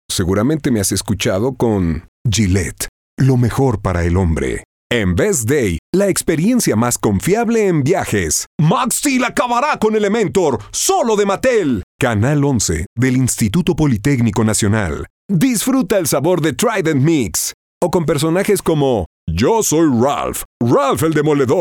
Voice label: spanish mexican others